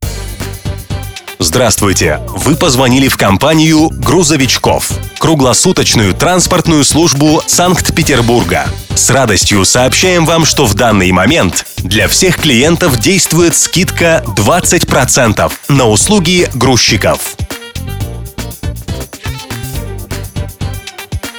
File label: M IVR voice